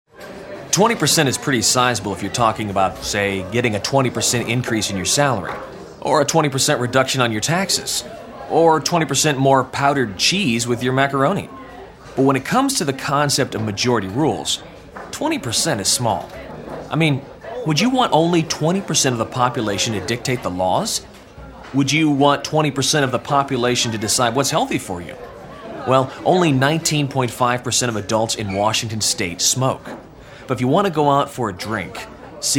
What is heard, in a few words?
Radio; WA Dept of Health